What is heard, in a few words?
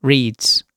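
"reads", British English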